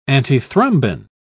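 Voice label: "antithrombin", British English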